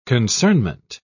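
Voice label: "concernment", British English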